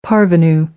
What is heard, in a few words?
"parvenu", American English